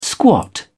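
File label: "squat", British English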